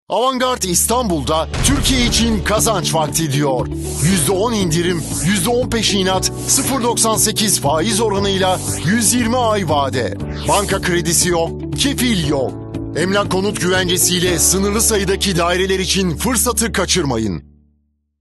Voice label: turkish corporate videos